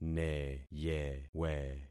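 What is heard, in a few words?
"neyewe", concatenated syllables; Affixing experiment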